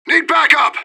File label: radio bot under fire; fbihrt